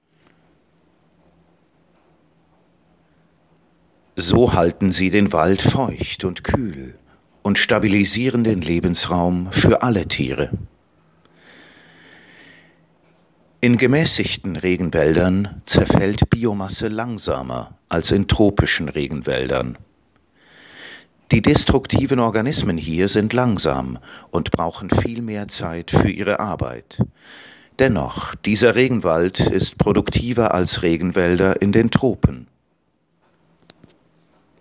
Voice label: narration, German, male